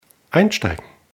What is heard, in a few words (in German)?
"einsteigen", d